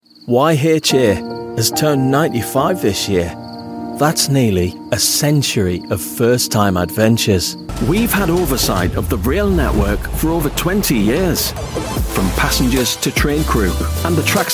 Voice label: corporate explainer narration